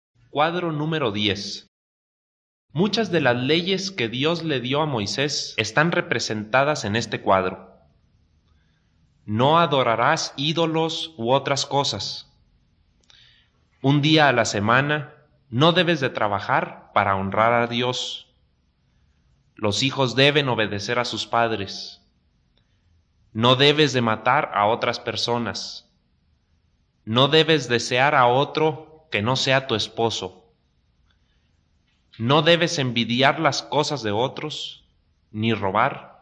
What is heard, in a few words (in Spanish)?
good news spanish mexico